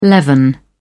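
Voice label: "leaven", British English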